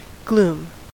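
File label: "gloom", US English; pronunciations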